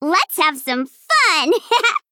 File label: Female Gnome Start